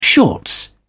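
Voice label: "shorts", British English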